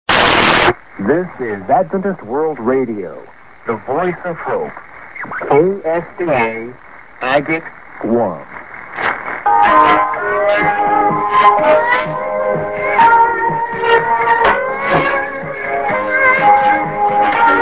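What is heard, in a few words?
St. ID (man (En))->music->ID (man); awr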